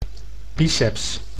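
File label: "biceps", Dutch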